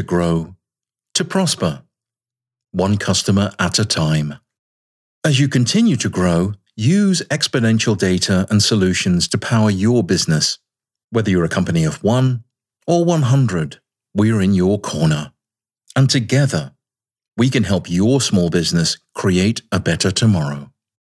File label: vo dream motivational